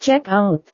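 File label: "check out", Indonesian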